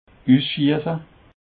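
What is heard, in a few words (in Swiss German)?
"usschiesse", Haut-Rhin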